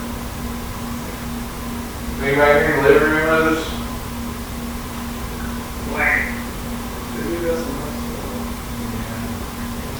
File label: 1st Floor Master Bedroom Unexplained response to question Amplified; Turner Home Bluefield